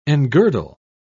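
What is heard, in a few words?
"engirdle", British English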